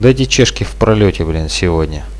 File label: oh yes, radio bot; Reallite